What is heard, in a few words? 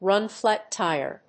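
"RUN FLAT TIRE", English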